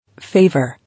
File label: "Favour", English